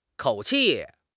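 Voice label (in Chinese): "口气", Chinese; HSK6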